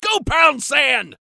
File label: go pound sand male voice